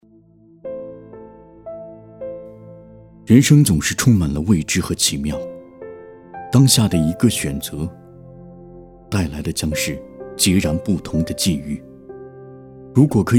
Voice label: 独白, 走心叙述; 排球电影

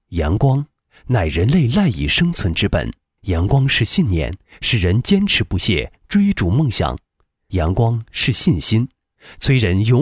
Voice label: 男 科技, 解说; 明鑫科技

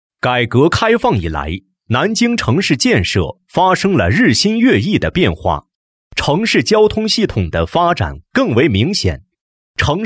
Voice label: 男, 工作汇报; 南京高新公司工作汇报

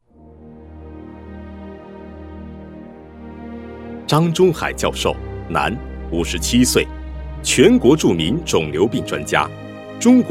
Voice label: 人物专题 大气风格

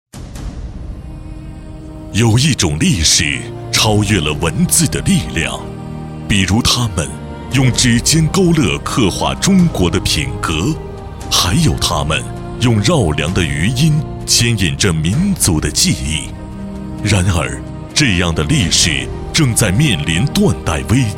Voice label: 男 专题 大气品质, 国语男声配音; 小师傅匠心; 交广主播

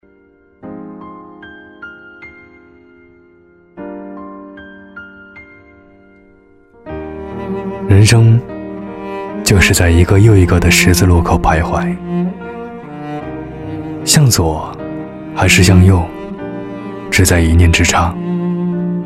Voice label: 男 走心旁白; 人生

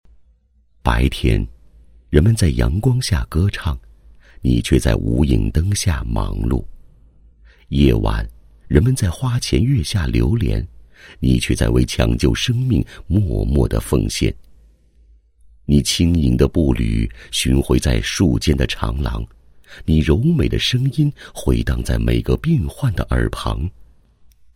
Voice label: 男 宣传片, 麻醉科手术室党员责任区

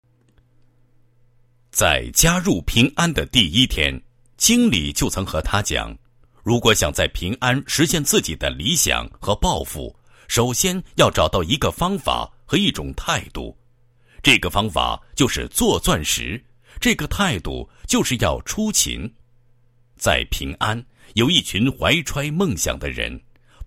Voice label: 国语男声配音 人物

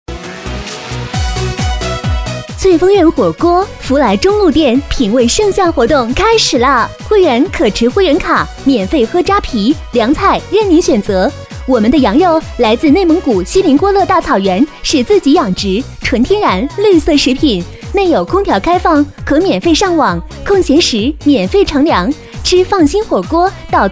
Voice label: 女1 广告 火锅, 国语女声配音, 磁性; 翠峰苑火锅

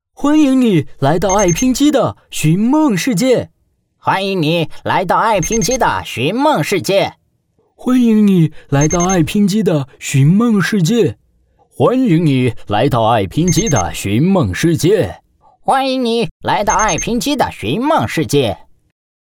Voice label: 男 卡通人物模仿; 迪士尼

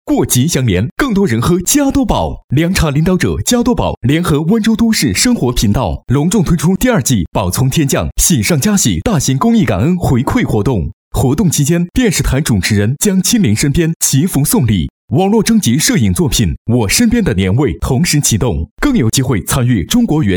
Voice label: 男 电视台广告, 浑厚磁性, 国语男声配音; 温州电视台广告

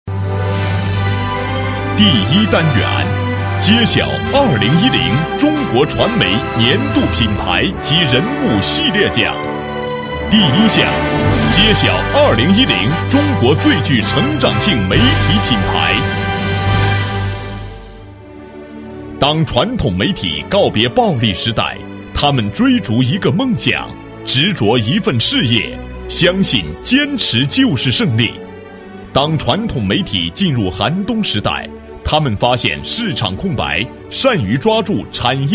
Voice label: 男7号 颁奖 传媒年度大奖, 国语男声配音

